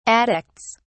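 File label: "addicts", English, British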